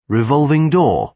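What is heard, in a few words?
"revolving door", British English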